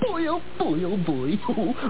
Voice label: boy oh